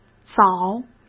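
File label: "早", Mandarin Chinese; hsk; chinese-flashcards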